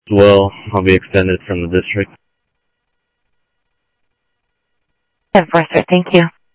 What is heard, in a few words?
South Scottsdale Sheriff, scanner audio